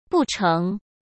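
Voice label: "不成", Mandarin Chinese; chinese flashcards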